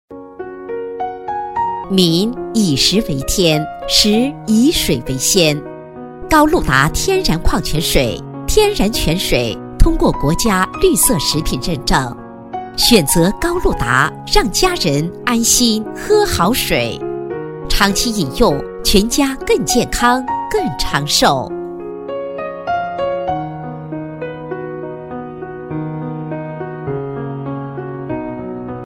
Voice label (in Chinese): 女 彩铃